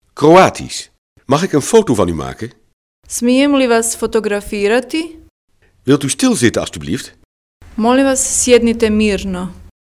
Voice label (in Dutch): kroatisch; Mag ik een foto van u maken?